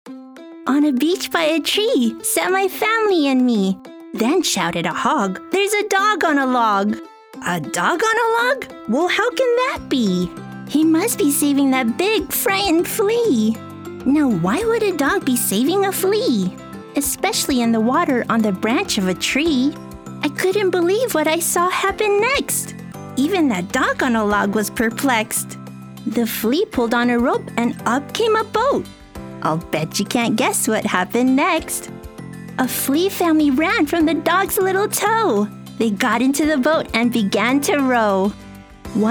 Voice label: Audiobook

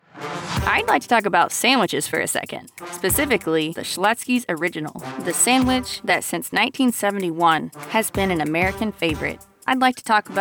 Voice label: Commercial; Schlotzsky's

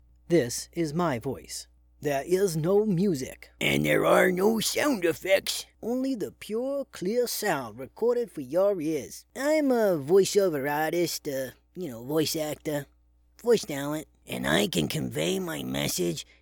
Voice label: Character Sample

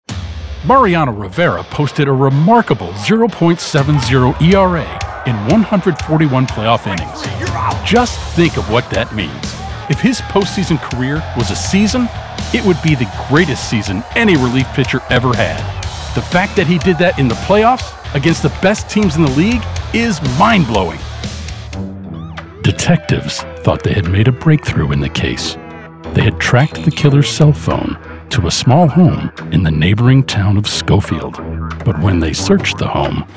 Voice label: Narration

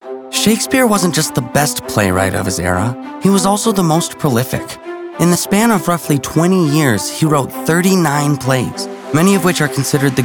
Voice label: Narration

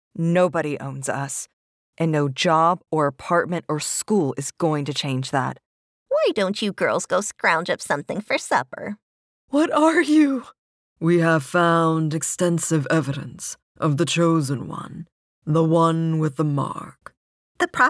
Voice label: Animation

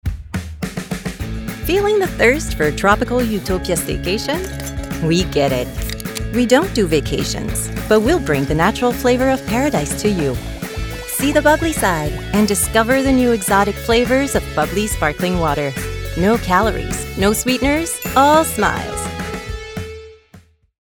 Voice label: Commercial; Bubly

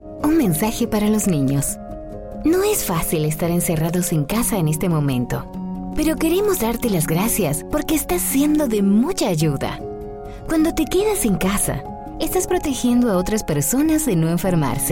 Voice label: Covid 19 ONG Neutral Spanish Spanish VO

